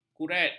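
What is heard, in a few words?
"kuret", Malay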